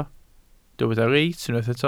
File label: "reit", Welsh